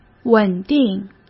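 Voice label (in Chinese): "稳定", Mandarin; chinese-flashcards; hsk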